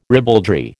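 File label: "ribaldry", American English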